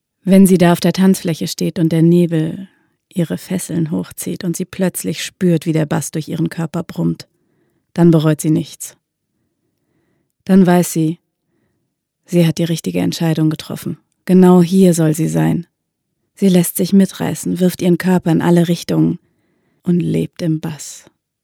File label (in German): Hörbuch Bass; ruhig, entspannt; Sprachprobe